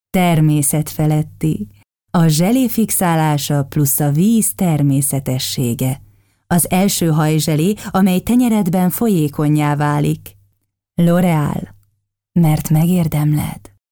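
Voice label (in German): Hungarian Sanft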